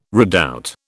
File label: "redoubt", British